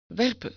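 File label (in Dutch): "werpen", Dutch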